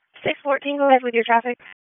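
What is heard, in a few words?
Police District Dispatch, p25; Raleigh; Southwest; wake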